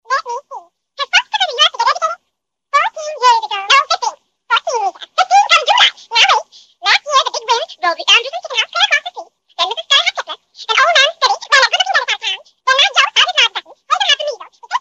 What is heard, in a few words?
Chipmunk Speech